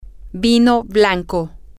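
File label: wine, Spanish, female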